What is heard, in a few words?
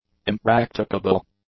"impracticable", English; adjectives